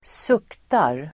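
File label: "suktar", Swedish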